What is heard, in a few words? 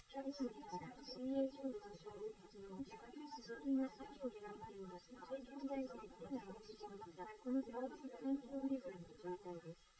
female liverec; SiSEC11; underdetermined